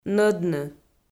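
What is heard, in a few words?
"нӧдны", Komi, female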